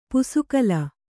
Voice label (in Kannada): "ಪುಸುಕಲು", Kannada